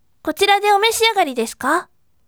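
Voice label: voice, bright; kotirade omeshiagari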